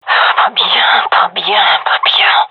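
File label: scared, female; vo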